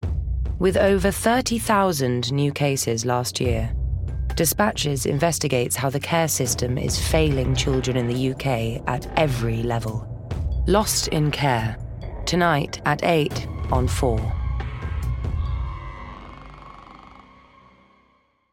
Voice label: Voicetape Commercial; Dispatches

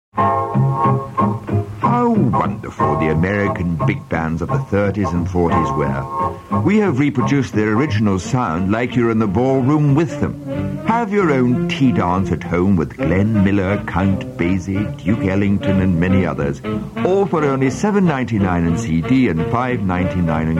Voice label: Commercials

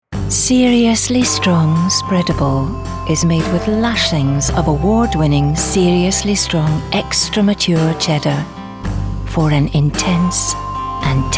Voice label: Commercial

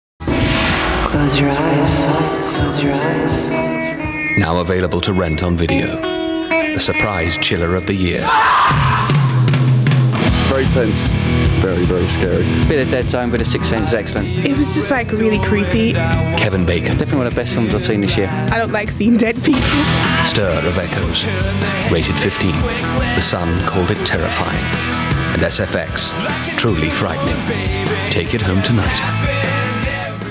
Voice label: Commercials

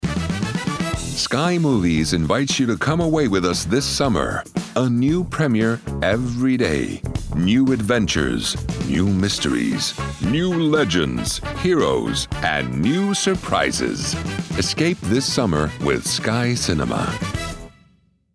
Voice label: Voicetape Commercial; Sky Movies

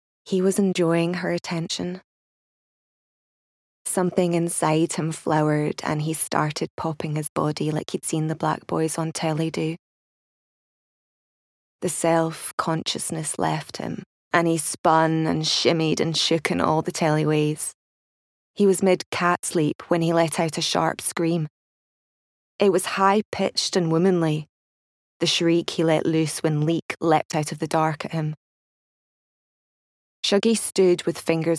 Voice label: Audiobook; Natural Accent: Scottish; Shuggie Bain

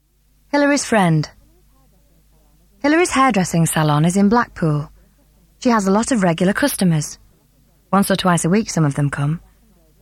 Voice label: Narration

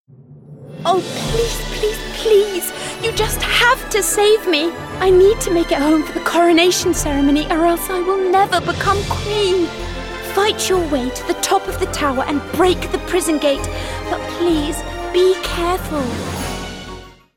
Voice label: Gaming Princess

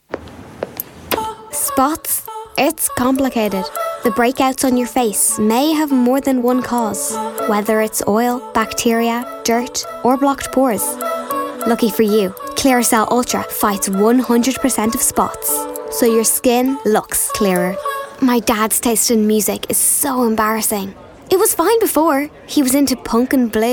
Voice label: commercial

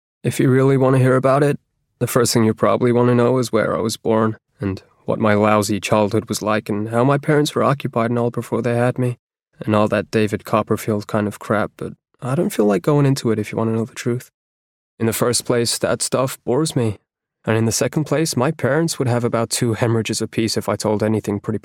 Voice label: Narration (US); Natural Accent: American

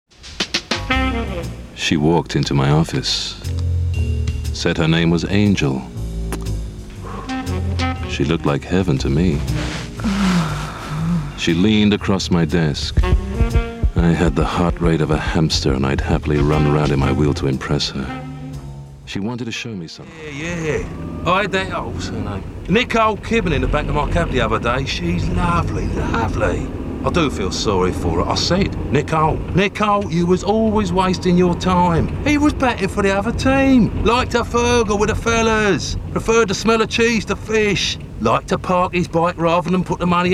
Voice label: Commercials

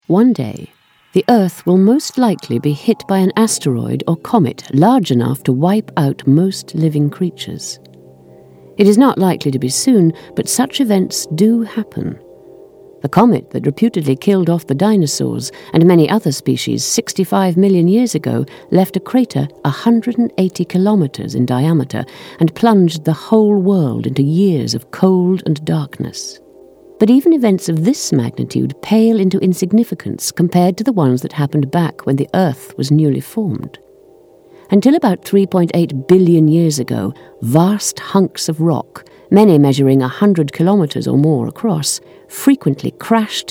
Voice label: Documentary

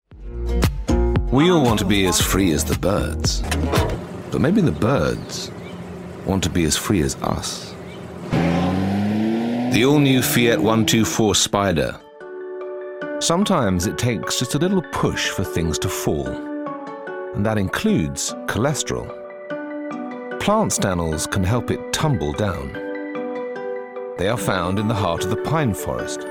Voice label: Commercial Reel

